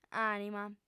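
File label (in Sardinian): "ànima", Sardinian, female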